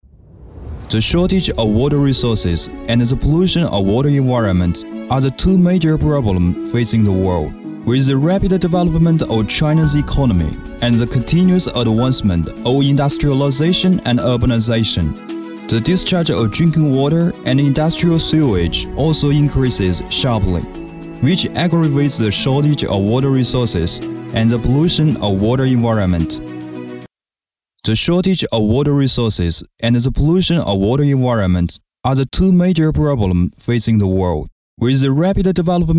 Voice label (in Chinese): 男英 外语 国内英语 化学企业 讲述; 化学企业Aierfuke